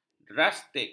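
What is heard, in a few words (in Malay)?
"drastik", Malay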